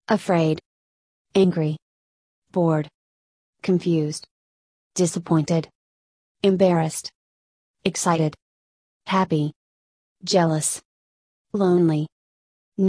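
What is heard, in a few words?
American; emotions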